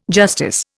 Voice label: "justice", Indian

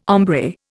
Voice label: "hombre", Indian

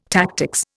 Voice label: "tactics", Indian

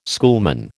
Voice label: "schoolman", American

